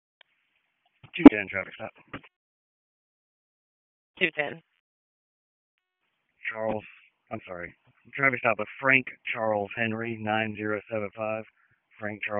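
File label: Raleigh Police Northeast District Dispatch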